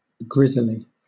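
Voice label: "grizzly", British English; pronunciations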